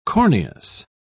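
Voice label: "corneous", British English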